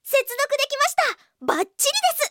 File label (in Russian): zvuki s golosom iaponskoi anime devushki